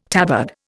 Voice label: "tabard", Indian